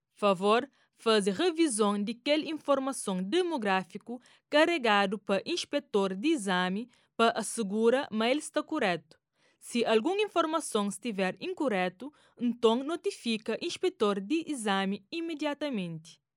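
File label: Cape Verdean Creole female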